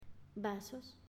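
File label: "Vasos", Spanish, female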